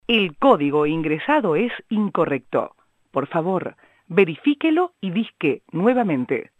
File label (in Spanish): mensajes telefonicos